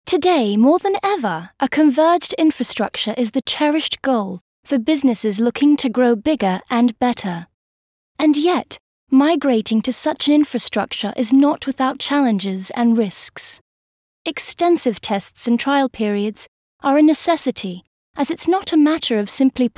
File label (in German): Sprecherin Englisch UK; Nachvertonung Film